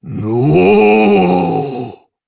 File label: Vox Casting, jp; Dakaris; kingsraid